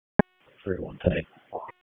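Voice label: Police Dispatch; Wake Forest Police Disp; p25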